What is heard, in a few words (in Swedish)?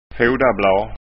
"fodabla", skanska ord